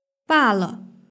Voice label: "bale", Chinese; beishuiyizhan